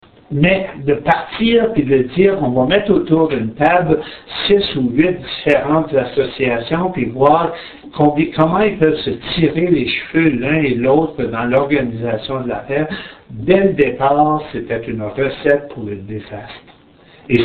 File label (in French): entrevue